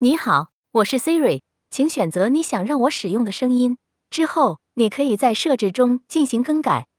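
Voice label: Chinese, China; iOS 26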